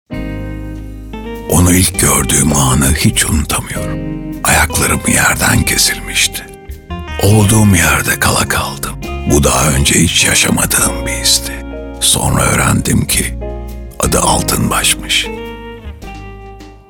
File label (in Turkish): Reklam, tr